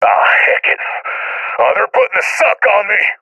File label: scared; Source Model Packs; Counter Strike Model Pack; CS2